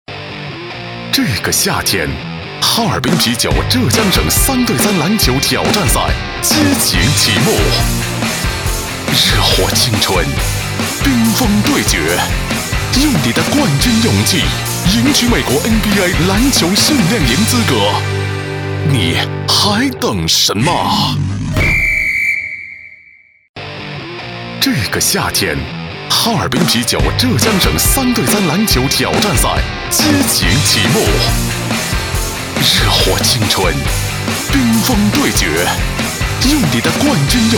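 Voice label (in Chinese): 国语 男声 宣传片 大气浑厚 大气浑厚磁性 沉稳 科技感; 浙江省3对3-广告宣传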